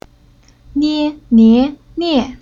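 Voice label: "Nie", Chinese syllable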